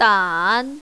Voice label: "dan3", mandarin, female